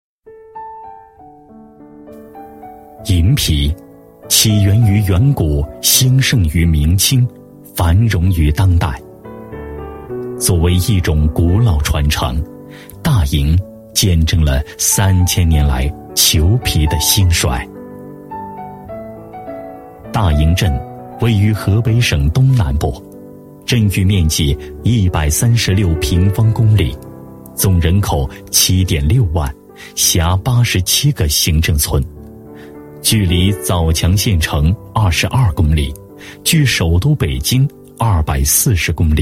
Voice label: 男 大气浑厚 专题; 国语男声配音; 中国裘皮

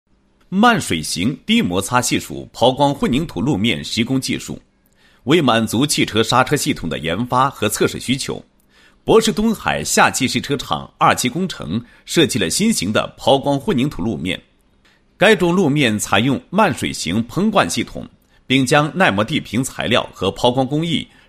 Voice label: 男 工程技术 抛光混凝土路解说词; 配音案例

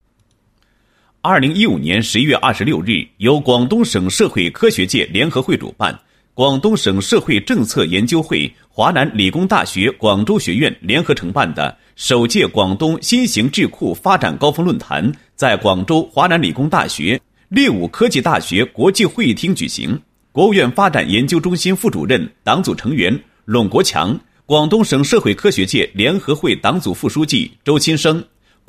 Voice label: 男 新闻, 高音, 国语男声配音; 首届广东新型智库发展高峰论坛

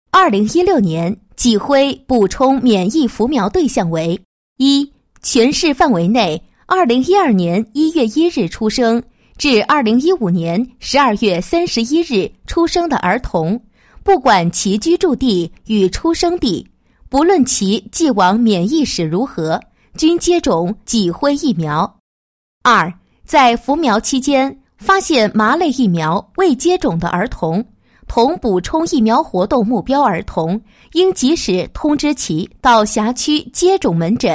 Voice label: 女 医疗 宣传; 脊灰补充免疫对象和接种注意事项宣传

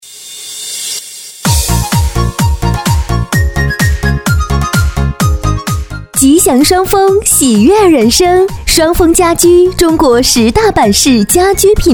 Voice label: 女 促销; 国语女声配音; 吉祥双风

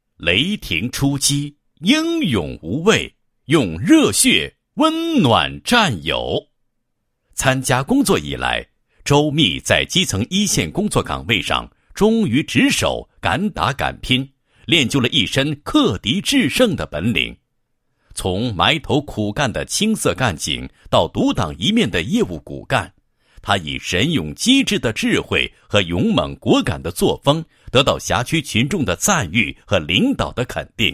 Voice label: 男 公安人物; 先进事迹

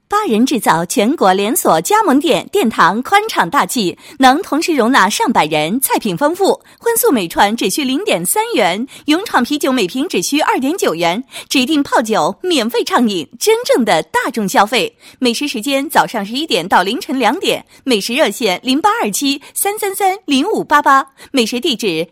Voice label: 女 广告; 巴人治灶

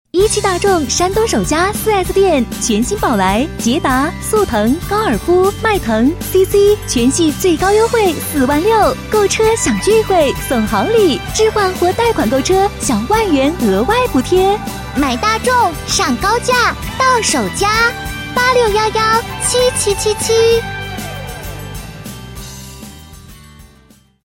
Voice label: 女 广告, 国语女声配音 多风格录音; 汽车活动

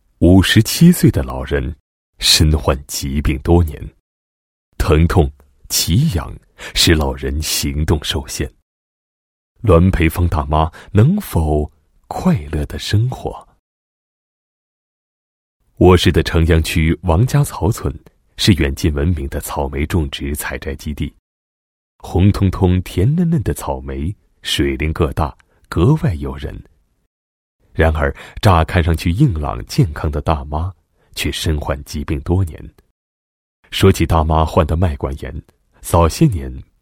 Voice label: 男 人物专题; 草莓人生

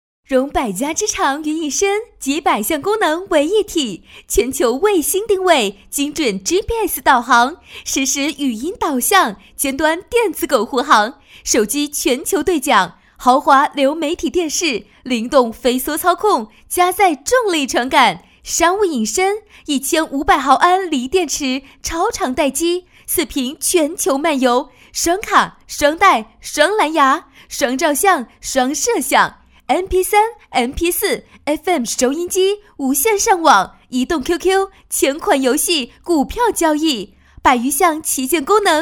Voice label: 女声 样音电视购物干声

